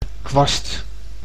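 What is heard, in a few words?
"kwast", Dutch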